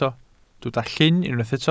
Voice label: "llyn", Welsh